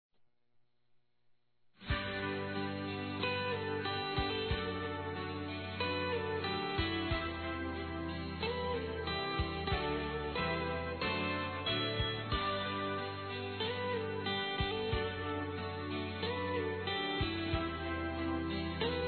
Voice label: UMA NOITE INESQUECÍVEL F; Telemensagens; Sensuais; fem

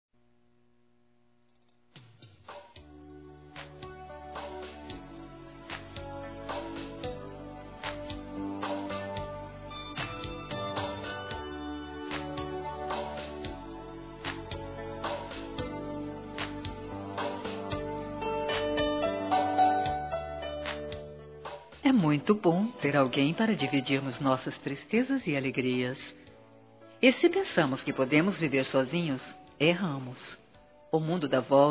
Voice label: preciso ficar de bem f, Telemensagens, fem; Desculpas; Telemensagens 2017

